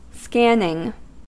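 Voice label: "scanning", American English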